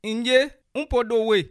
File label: yes a little, bassa